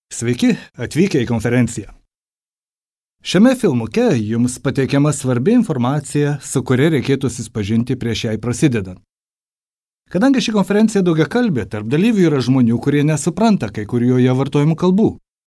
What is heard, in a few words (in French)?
lituanien